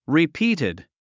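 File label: "repeated", English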